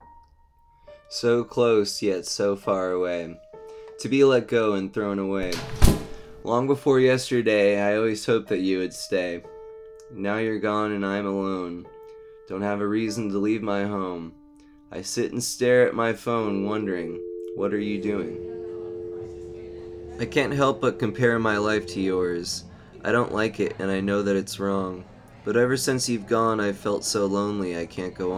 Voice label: Spoken Word; Estrangement